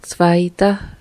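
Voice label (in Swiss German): "zweita", Alsatian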